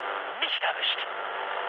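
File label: German bomb failure mood med, speech; War Thunder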